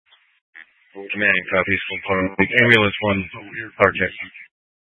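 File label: Incident Tac 1, Scanner audio recording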